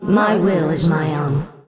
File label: Angry; warcraft3; Evil Sylvanas